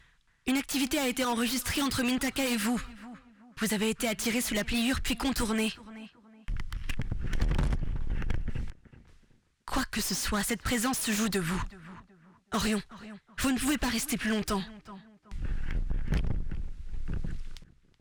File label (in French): Voix Radio Quadra Fuzz; Babel